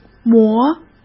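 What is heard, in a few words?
"磨", Mandarin Chinese; chinese flashcards; hsk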